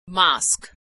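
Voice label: "mosque", American English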